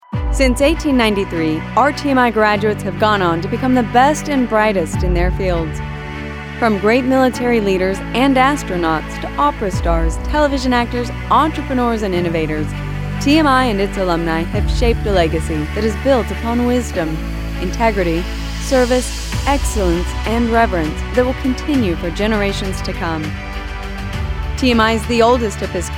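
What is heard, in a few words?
Authoritative, Inspiring, Corporate; Commercial Reel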